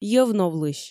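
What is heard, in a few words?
"молочник", Komi, female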